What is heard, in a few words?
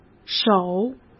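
"守", Mandarin Chinese; hsk; chinese-flashcards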